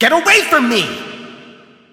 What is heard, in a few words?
hurt vo; 游龙错艾德加（荒野乱斗）